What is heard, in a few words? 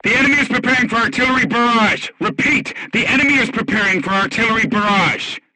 voice message art shooting warning, crew tanks portuguese